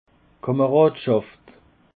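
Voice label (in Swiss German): "kamaradschaft", Alsatian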